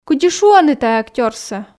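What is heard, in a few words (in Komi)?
кыдзи шуӧны тайӧ актёрсӧ, Komi, female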